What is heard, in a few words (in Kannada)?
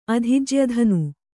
"ಅಧಿಜ್ಯಧನು", Kannada